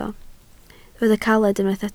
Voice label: "caled", Welsh